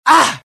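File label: death, dialogue generic Italian; mohaa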